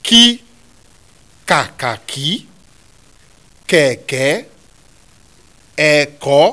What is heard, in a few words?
k k k, Yoruba; kakaki keke eko